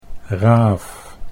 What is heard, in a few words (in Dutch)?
"raaf", Dutch